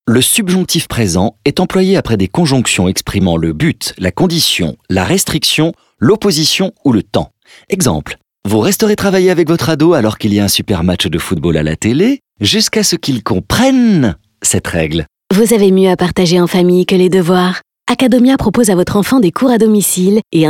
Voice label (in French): Didactique, ironique, Pubs Comédie; Acadomia